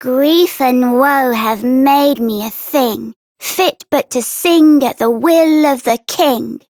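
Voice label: Child Rhymes Sad Child Female; Duviri; Childrens Rhymes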